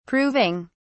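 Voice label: "proving", British English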